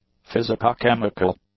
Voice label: "physicochemical", english; adjectives

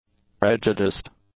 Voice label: "prejudiced", english; adjectives

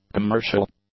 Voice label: "commercial", English; adjectives

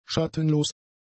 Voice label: "schattenlos", german; adjectives

